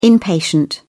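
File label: "inpatient", British English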